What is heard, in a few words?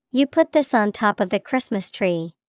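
star, English vocabulary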